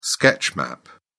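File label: "sketch map", British English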